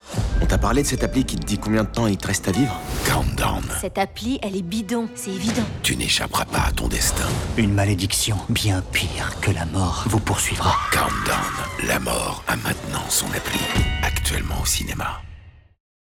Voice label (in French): Spot